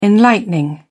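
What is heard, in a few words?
"enlightening", British English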